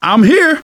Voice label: scenario join, survivor voice; Left 4 Dead 2; left4dead2 dlc3